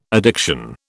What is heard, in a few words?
"addiction", British